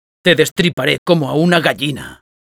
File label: Spy taunts, es